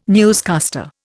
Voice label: "newscaster", Indian

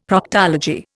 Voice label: "Proctology", Indian; Dictionary

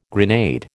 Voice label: "grenade", American

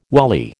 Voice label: "wally", American

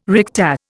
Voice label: "recta", Indian

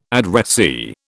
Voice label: "addressee", British

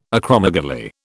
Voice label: "acromegaly", British

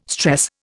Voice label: "stress", Indian

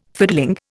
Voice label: "fiddling", Indian

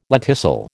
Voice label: "lenticel", American

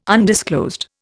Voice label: "undisclosed", Indian

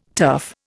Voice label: "turf", Indian

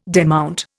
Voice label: "demount", Indian

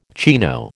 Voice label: "chino", American

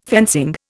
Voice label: "fencing", Indian

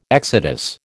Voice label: "exodus", American

